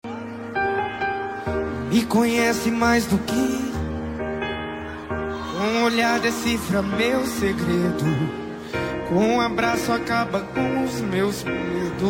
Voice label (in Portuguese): fem plural, Voz Feminina; dia dos pais plural